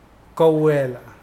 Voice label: break, Mokilese

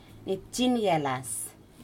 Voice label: "crab", Aneityum

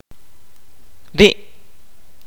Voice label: that, kaqchikel

